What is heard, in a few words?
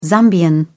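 "zambian", British English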